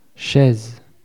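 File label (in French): "chaise", French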